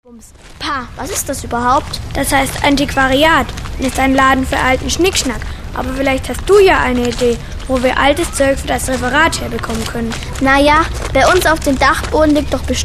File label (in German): sprechszene